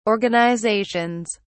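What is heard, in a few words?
"organizations", British English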